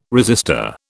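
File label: "resistor", British English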